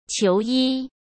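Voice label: "求医", Mandarin Chinese; chinese-flashcards; hsk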